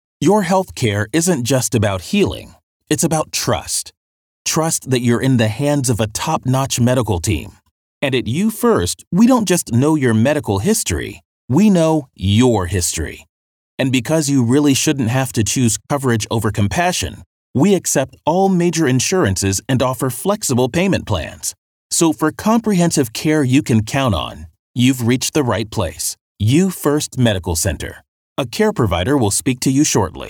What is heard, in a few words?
Sincere